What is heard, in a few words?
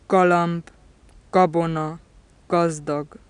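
velar stop voiced initial, hungarian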